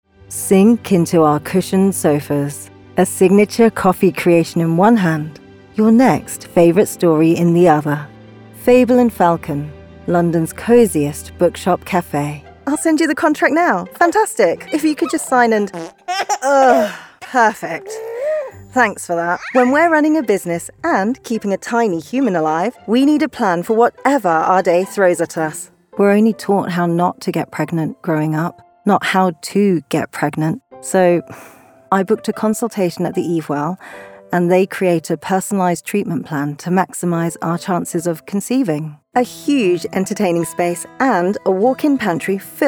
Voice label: Commercials, female voices

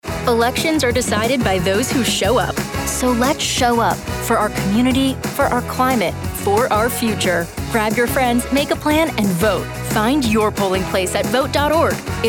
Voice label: american english political, female voices

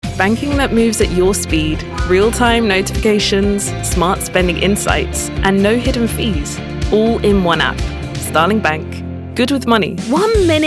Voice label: english commercial reel, female voices

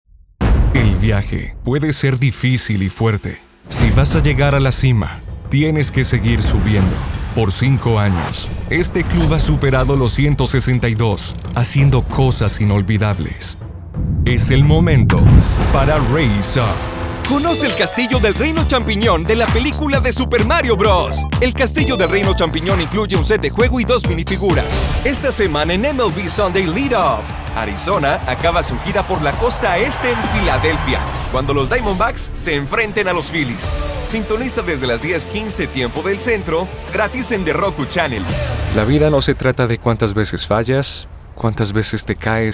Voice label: VO Artist Reel Latam Spanish, male voices

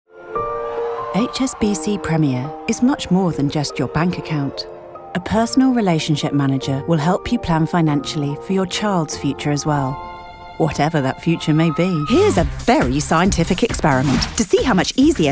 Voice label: Commercial Reel, female voices

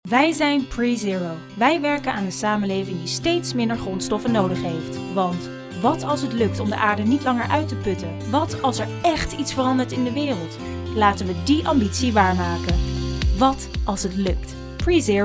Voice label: Dutch Commercial Voicereel, female voices

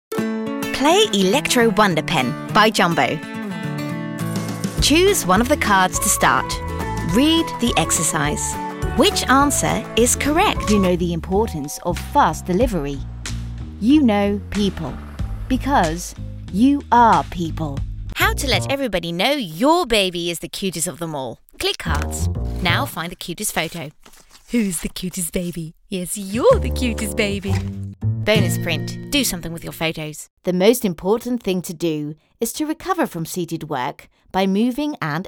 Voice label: VO commercial EN, female voices

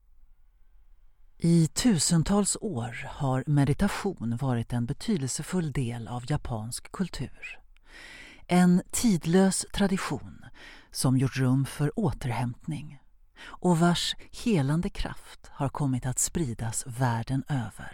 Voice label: swedish commercial, female voices

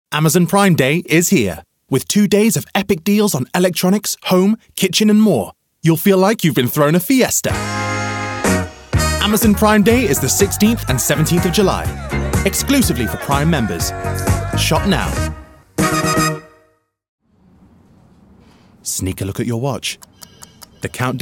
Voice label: Commercial, male voices

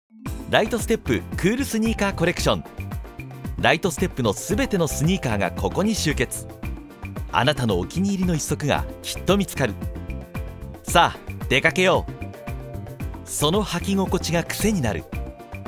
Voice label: japanese, male voices

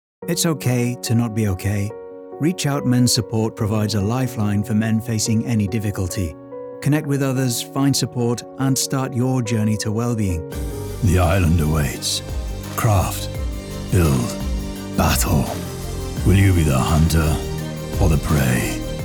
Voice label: COMMERCIAL, male voices

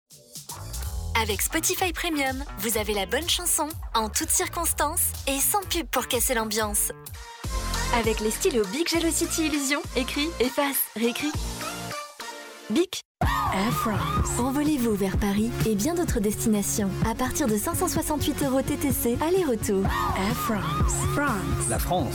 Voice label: Commercial, female voices

